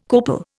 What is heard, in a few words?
"copal", Indian